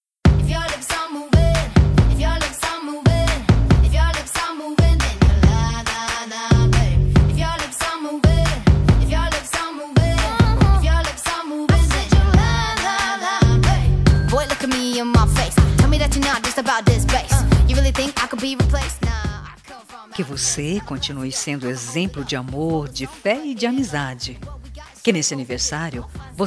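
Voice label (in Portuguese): birthday IRMAO female, telemensagem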